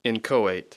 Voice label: "inchoate", English